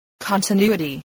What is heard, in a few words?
"continuity", spelling